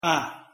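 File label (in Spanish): "a", Spanish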